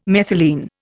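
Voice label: "methylene", English, Indian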